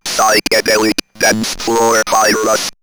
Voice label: Robot Dancefloor Virus, robot vox; X-Static Goldmine

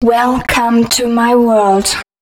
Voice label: female, spoken hooks

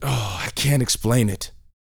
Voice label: TALK, Vocal, SCI-FI; 5 SCI-FI CHZ

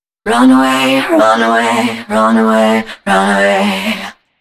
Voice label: female 130, Dance Ultra Voice, short hooks; Mutekki Media; Dance Ultra Voice VOL-1

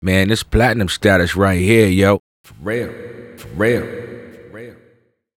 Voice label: "platinum", HipHop Vox; E-MU Producer Series Vol. 8 – Platinum Phatt

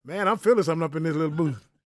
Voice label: PREACHIN; Spectrasonics Vocal Planet; Jazz FX

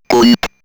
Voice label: Robot Bleep, robot vox; X-Static Goldmine

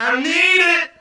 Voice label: Male FX Vocals; Houseworx

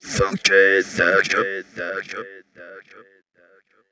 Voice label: FX TALK, PROCES, Funky Vocals; USB Soundscan vol.18; AKAI

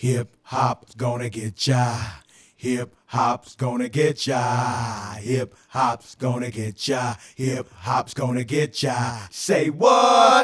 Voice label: Male Vocal; Vocals; Sonic Foundry (Sony Creative Software); Mac Money

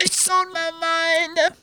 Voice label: "on my mind", Funky Vocals, girls; USB Soundscan vol.18; AKAI; TB GIRLS

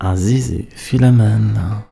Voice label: POET, POET VOICE, Arabian Traditions; USB Soundscan vol.43; AKAI

